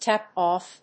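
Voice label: "tap-off", English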